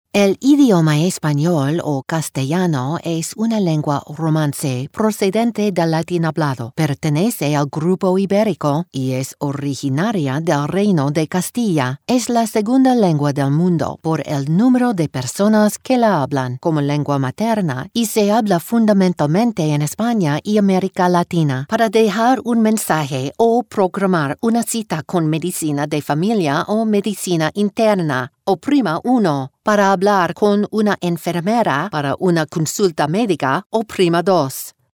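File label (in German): Spanish Neutral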